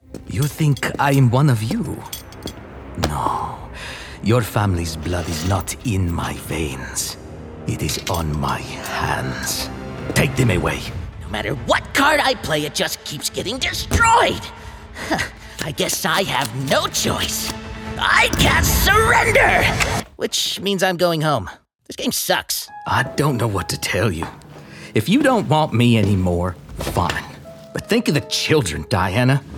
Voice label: Character